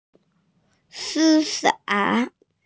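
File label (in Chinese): "si1fa3", Mandarin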